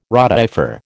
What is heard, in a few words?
"rotifer", American